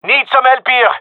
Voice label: request help, male; vo